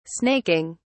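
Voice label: "snaking", British English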